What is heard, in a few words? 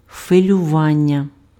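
"хвилювання", Ukrainian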